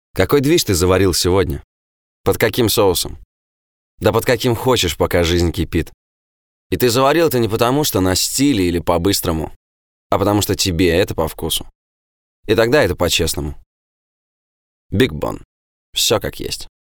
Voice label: реклама

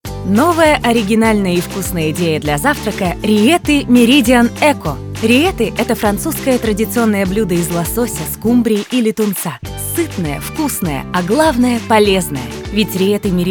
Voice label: РАДИО РЕКЛАМА

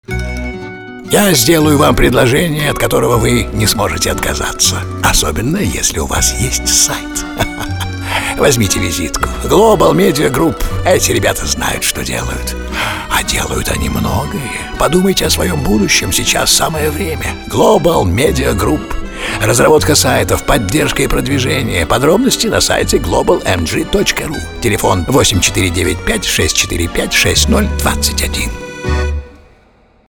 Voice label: ролик компании; Глобал Медиа Групп Крёстный отец

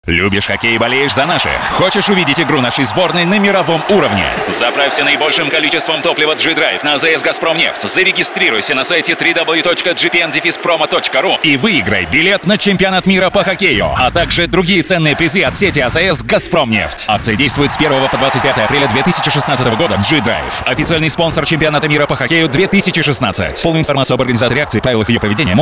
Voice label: аудиореклама; Газпромнефть Чемпионат Мира по Хоккею 2016; запись голоса монтаж сведение ролика

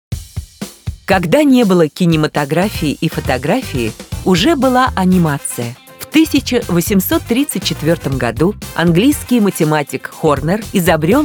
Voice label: закадр

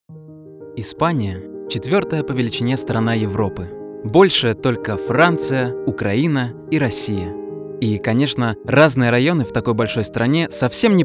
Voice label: ЗАКАДР

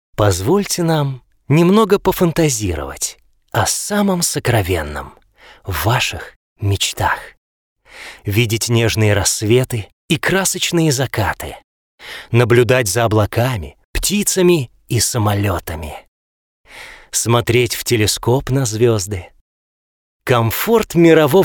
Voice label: реклама

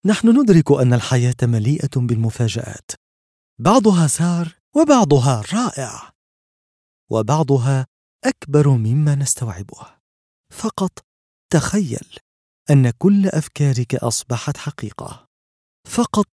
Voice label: Мужской арабский

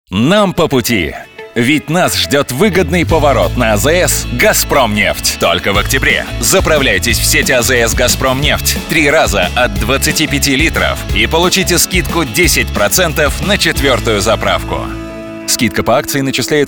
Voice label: аудиореклама сети АЗС диктор; ГАЗПРОМНЕФТЬ; запись голоса монтаж сведение ролика

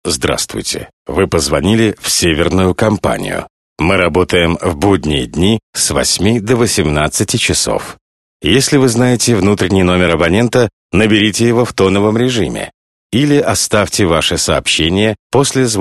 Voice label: IVR диктор; СЕВЕРНАЯ КОМПАНИЯ

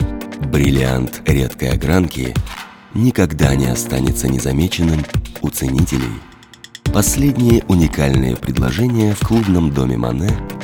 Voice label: РАДИО РЕКЛАМА

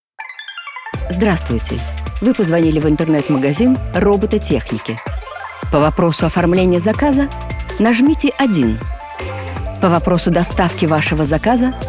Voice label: Автоответчик

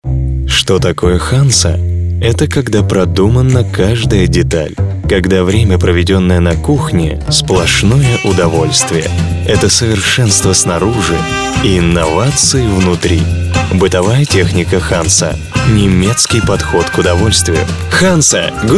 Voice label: радио

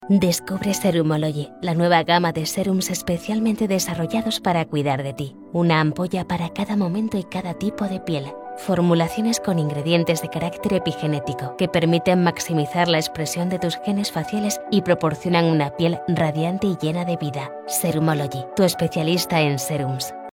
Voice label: Женский испанский

